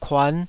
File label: "kuan5", Taiwanese syllable